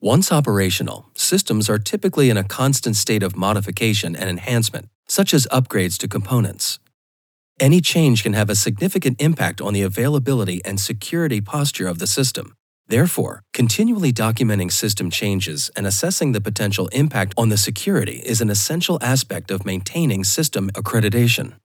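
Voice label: American e-learning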